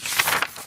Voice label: reading; sorin